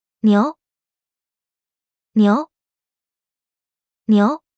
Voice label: "牛", mandarin; Traditional Chinese

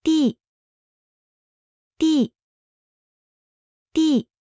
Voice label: "弟", mandarin; Traditional Chinese

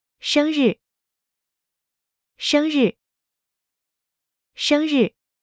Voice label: "生日", Mandarin; Traditional Chinese

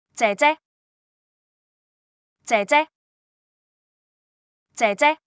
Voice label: 姐姐, Cantonese; Traditional Chinese

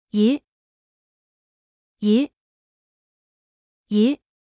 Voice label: "魚", Mandarin; Traditional Chinese

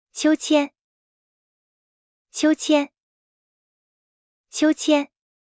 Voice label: 秋千, mandarin; Traditional Chinese

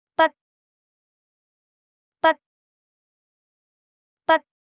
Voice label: 筆, Cantonese; Traditional Chinese

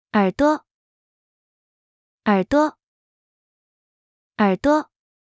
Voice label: 耳朵, mandarin; Traditional Chinese

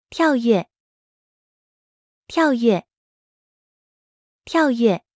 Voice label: "跳躍", Mandarin; Traditional Chinese

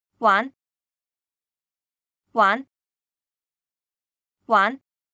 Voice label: 玩, Cantonese; Traditional Chinese

